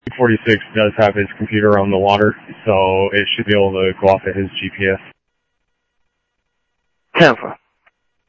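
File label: Sheriff Lake Patrol, scanner audio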